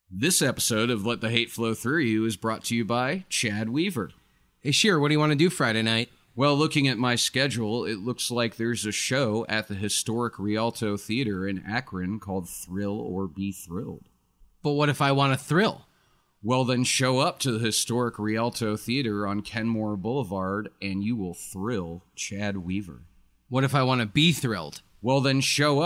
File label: commercial